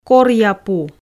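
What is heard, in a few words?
"коръя пу", Komi, female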